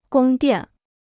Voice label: "宫殿", Chinese

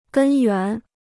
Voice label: "根源", Chinese

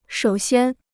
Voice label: "首先", Chinese

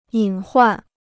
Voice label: "隐患", Chinese

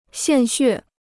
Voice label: "献血", Chinese

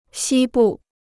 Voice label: "西部", Chinese

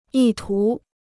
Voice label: "意图", Chinese

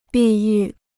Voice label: "碧玉", Chinese

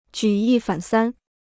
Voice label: "举一反三", Chinese

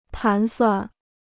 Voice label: "盘算", Chinese

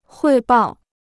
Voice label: "汇报", Chinese

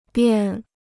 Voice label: "便", Chinese

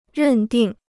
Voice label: "认定", Chinese

